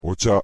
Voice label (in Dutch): "お茶", Japans; thee-in-andere-talen